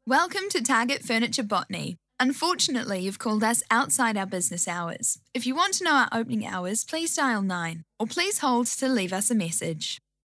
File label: Voicemail; Target Furniture